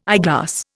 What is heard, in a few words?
"eyeglass", Indian